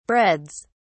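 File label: "breads", British English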